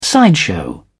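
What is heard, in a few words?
"sideshow", British English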